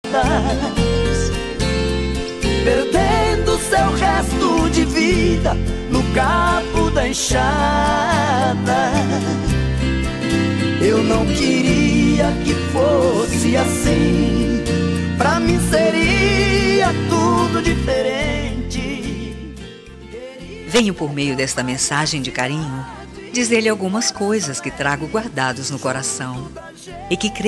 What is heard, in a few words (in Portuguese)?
dia dos pais avô, voz feminina; para avo